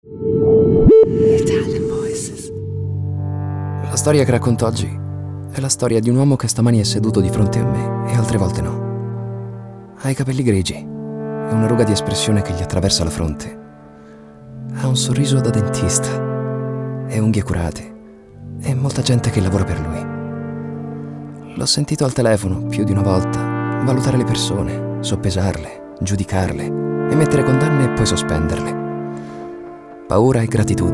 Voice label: ITALIAN VOICES, male voices; Naked Reel